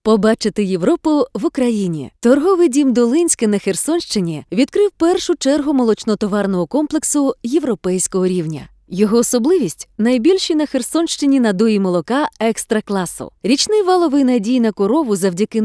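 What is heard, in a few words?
zg presentation, Ukrainian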